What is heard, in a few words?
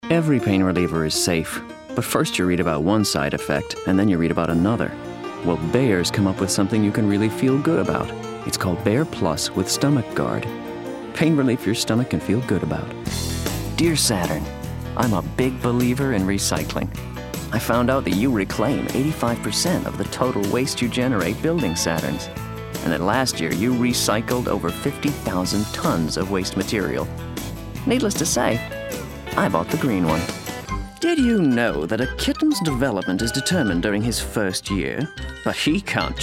Commercial Voiceover